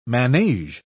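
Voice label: "manege", British English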